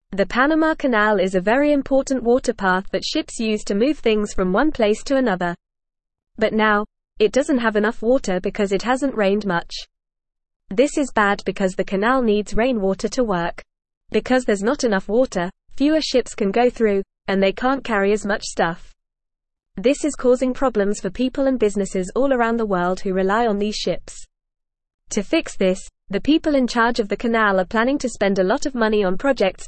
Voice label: English FAST Reading; English Newsroom; Panama Canal Needs More Water for Ships